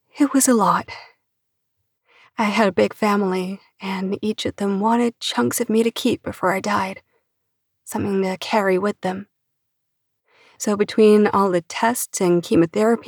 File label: American accent female dialogue